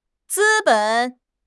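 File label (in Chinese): "资本", Chinese; HSK6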